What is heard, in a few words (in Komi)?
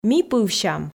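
"ми пывсям", Komi, female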